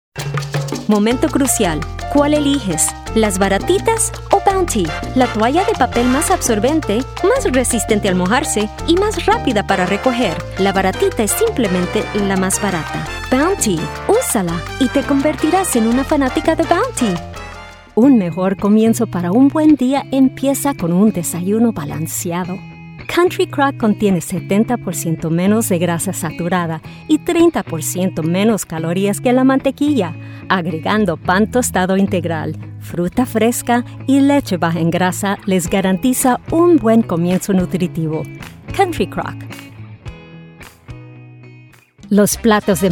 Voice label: SPANISH